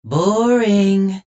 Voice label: jeers; tf; redsun; adachi; spy